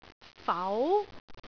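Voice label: "fau2", Cantonese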